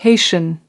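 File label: "haitian", US English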